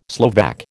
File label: "Slovak", American